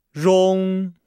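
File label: "rong", Chinese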